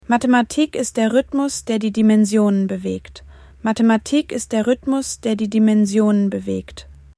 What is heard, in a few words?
german female clear